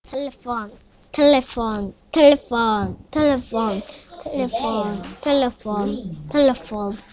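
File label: "telephone", spoken word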